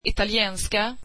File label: swedish Italian